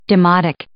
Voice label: "demotic", English